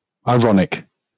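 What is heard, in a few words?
"ironic", British English; pronunciations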